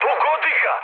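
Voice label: Serbian attack position mood med, speech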